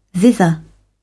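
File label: "thither", British English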